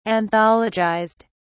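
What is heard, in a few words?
"anthologized", British English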